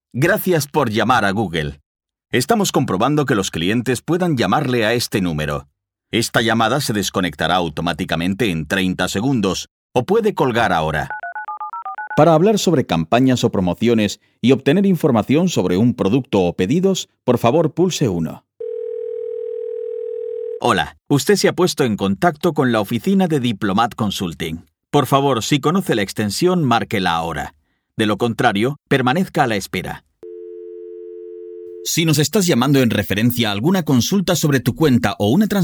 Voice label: spanish ivr; full-time VO actor with 30 years of experience